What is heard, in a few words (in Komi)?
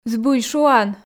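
"збыль шуан", Komi, female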